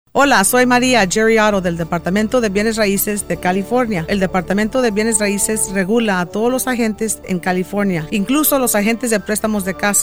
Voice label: spot spanish